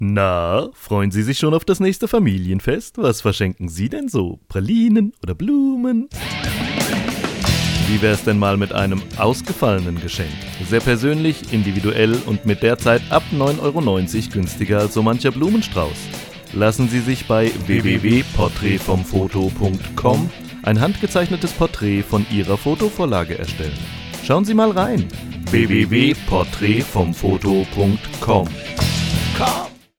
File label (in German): Werbung; PortraitVomFotoCom